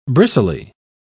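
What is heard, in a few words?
"bristly", British English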